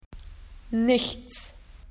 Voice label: "nothing", spoken AU; PictureDictionary

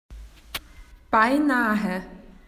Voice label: almost, spoken AU; PictureDictionary

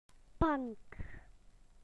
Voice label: "bench", BE; PictureDictionary

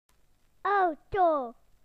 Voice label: "car", BE; PictureDictionary

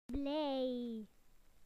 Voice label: "happy", BE; PictureDictionary

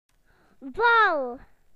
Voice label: "ball", BE; PictureDictionary

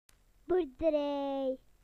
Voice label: "farm", BE; PictureDictionary

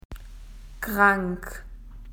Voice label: "ill", AU; PictureDictionary

